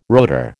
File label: "rotor", American